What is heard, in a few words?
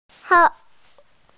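"crocodile", Mandarin, hearing-impaired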